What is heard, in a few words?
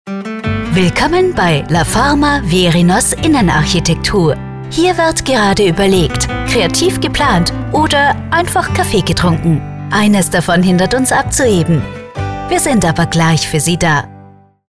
german austrian ivr